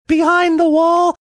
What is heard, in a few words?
saying behind the wall high pitched